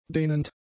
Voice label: "dehnend", german; adjectives

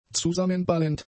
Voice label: "zusammenballend", German; adjectives

